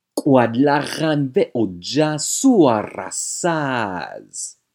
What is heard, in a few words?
witch test; motherland-fort-salem